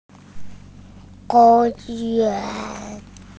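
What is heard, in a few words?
"park", Mandarin Chinese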